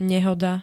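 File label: "nehoda", Slovak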